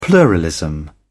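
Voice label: "pluralism", British English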